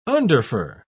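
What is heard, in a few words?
"underfur", English, British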